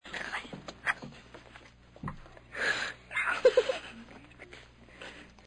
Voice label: whispers